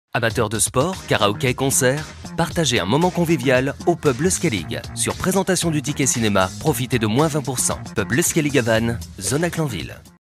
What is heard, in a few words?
french